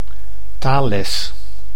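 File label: "taalles", Dutch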